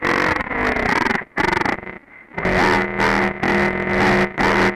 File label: EMS voice; SPEECH; Syntec; Wall of Sounds; TECHNO-BOX